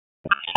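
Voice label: Dispatch, p25; WSO DISP 1; wake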